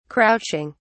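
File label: "crouching", British English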